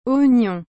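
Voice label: "oignon", French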